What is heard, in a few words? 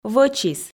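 "вӧчис", Komi, female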